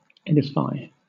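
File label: "edify", British English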